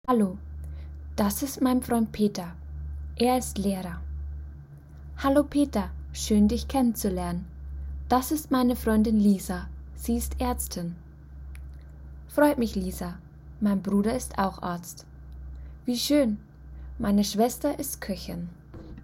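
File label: Dialogue, German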